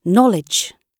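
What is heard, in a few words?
"knowledge", British English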